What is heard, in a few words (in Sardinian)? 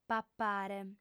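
"papare", female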